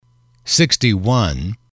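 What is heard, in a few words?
"sixty one", English, male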